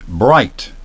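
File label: "bright", English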